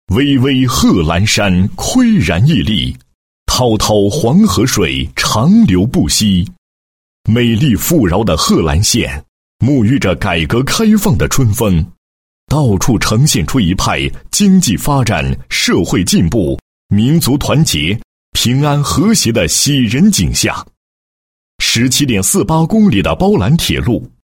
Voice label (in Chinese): 男 工作总结 创建平安铁路20周年